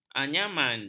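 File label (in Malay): "anyaman", Malay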